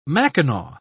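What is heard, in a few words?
"mackinaw", British English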